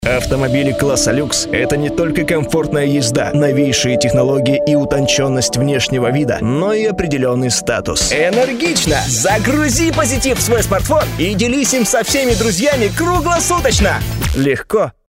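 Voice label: car advert, Russian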